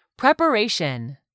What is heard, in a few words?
"preparation", english; 3000 words